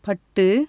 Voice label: silk, tamil; JIPA